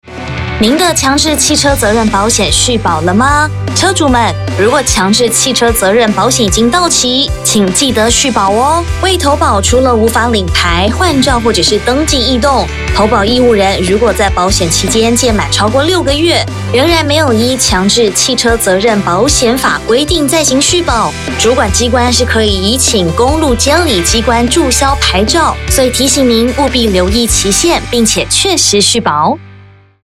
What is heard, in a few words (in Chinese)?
國語